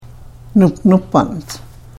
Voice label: "nupnupanach", karuk